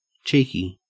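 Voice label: "cheeky", Australian English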